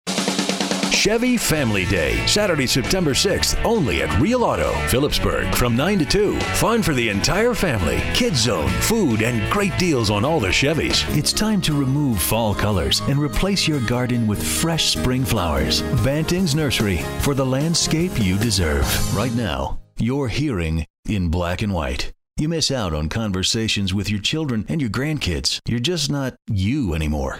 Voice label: Commercial, Male Commercials